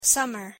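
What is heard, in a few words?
"summer", English pronunciation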